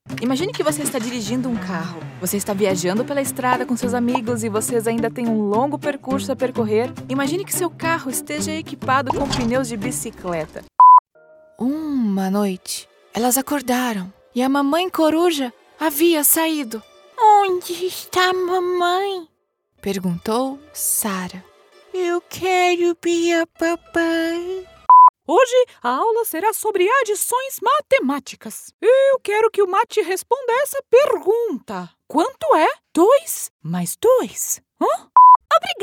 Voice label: kids narration characters natural voice original voice female brazilian